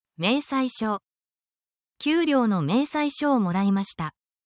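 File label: Japanese word, essential Japanese phrases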